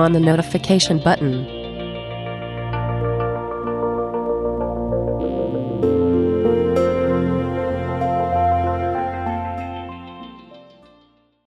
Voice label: comment promo; church; mixdown